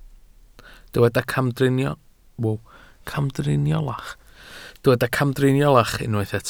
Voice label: "camdriniolach", Welsh